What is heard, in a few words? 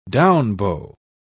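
"down-bow", British English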